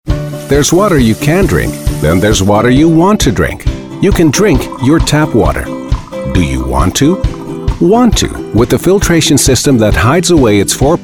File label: en upbeat friendly